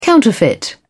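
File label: "counterfeit", British English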